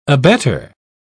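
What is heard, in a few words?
"abettor", British English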